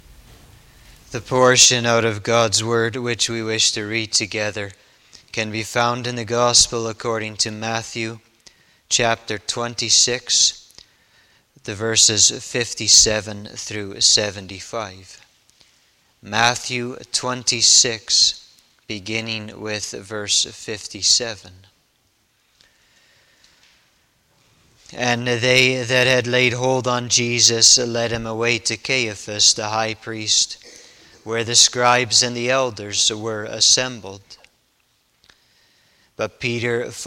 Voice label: Bible Reading; Swearing an Oath